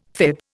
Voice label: "fib", Indian